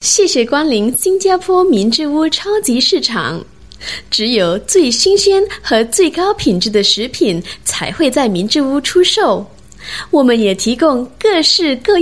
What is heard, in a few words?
Mandarin Read, China Female